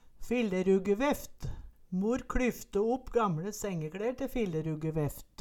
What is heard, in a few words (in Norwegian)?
"filleruggeveft", Numedalsmål